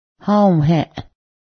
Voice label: him, Cayuga

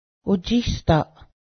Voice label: flame, Cayuga

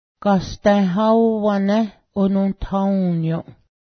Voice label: mountain, Cayuga

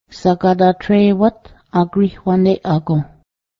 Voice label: i repent my sins, Cayuga

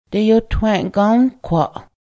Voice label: "snowshoe", cayuga

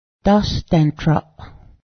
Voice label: fishhook, Cayuga

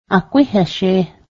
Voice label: dont touch it, Cayuga

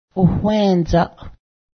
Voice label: land, Cayuga

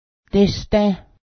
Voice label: fly, Cayuga

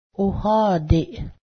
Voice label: lane, Cayuga

